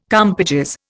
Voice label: "compages", Indian